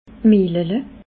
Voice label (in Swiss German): "mellele", alsace